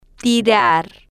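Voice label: "tirar", slow, spanish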